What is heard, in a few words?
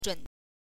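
"zhun", Mandarin Chinese, neutral tone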